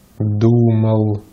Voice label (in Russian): "думал", Russian